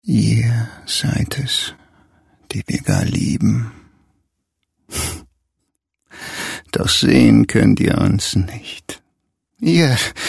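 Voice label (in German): psycho, Männer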